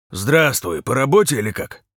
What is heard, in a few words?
truck Driver greeting